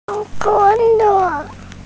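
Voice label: "ke3le4", Chinese, female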